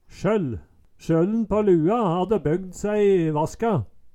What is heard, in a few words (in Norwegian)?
"sjøll", Numedalsmål; Eksempel på bruk Sjøllen på lua hadde bøgd sæi i vaska